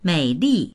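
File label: "mei3li4", Mandarin Chinese; 美丽; měilì; 美しい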